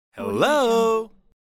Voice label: male voice "hello"